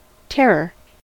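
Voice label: "terror", English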